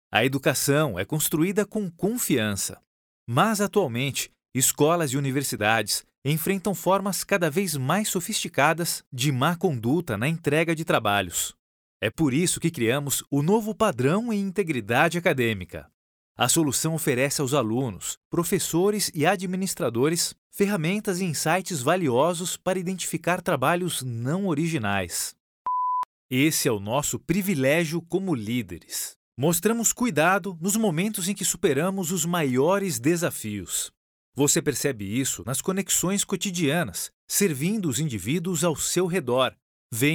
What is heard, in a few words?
e-learning